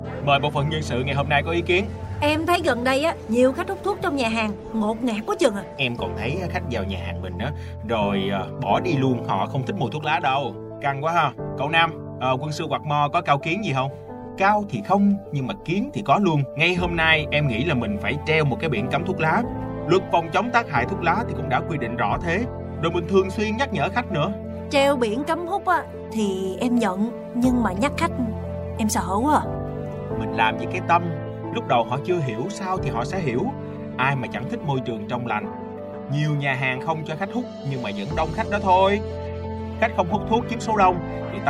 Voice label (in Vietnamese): giọng nam; nhà hàng không khói thuốc; clip phat thanh ve phong chong tac hai cua thuoc la 2024